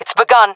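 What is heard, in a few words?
attacking, jungle female; vo; agents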